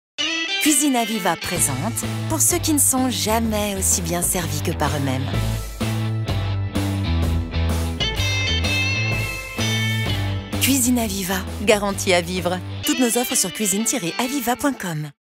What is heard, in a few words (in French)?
voix off; Pub TV; Aviva Cuisines